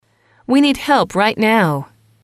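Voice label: we need help right now, English, female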